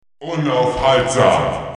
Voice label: "unstoppable", german; Announcer

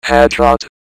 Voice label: "headshot", robot; Announcer

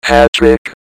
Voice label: hattrick, robot; Announcer

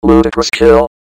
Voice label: "ludicrous kill", robot; Announcer

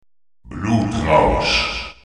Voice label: "killing spree", german; Announcer

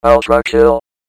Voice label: "ultrakill", robot; Announcer

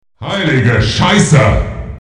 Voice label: "holy shit", german; Announcer

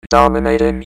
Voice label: dominating, robot; Announcer

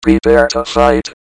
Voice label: "prepare", robot; Announcer

